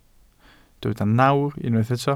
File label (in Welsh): "nawr", Welsh